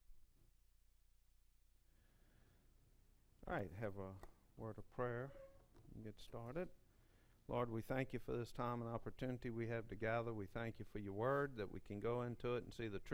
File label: English